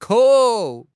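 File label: "kou3", Mandarin Chinese; HSK3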